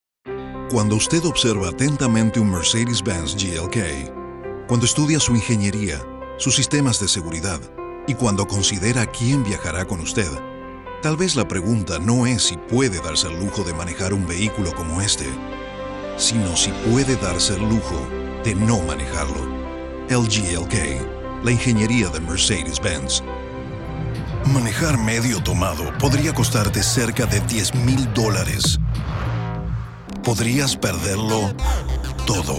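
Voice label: Spanish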